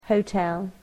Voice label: "hotel", english